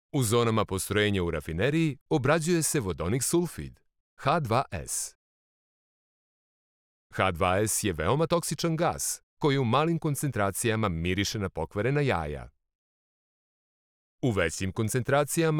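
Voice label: serbian male narration voice; voice demos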